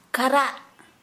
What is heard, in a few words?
Santali, cough